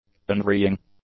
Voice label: "unvarying", english; adjectives